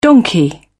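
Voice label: "donkey", British English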